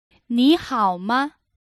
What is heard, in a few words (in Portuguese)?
"como vai" em mandarim